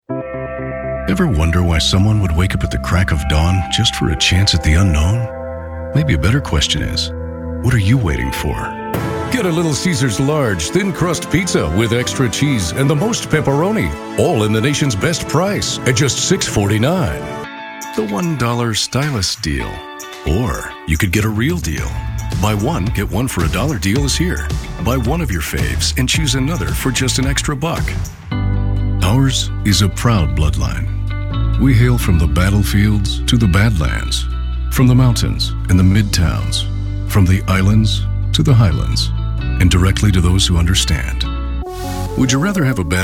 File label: Commercial